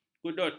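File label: "kudut", Malay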